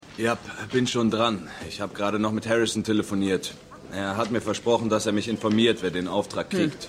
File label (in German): Aelterer Zeitungsreporter; Shining Girls